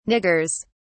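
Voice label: "niggers", British English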